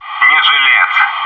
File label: "enemy down", bot